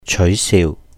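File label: "chéui siu", Cantonese; deprecatory; tease; 取笑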